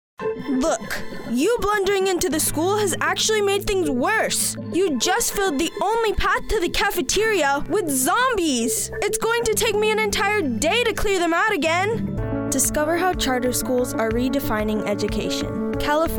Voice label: Child Voice Female 15yo; aged 15; Spanish (Latin and Castillian), International Voiceover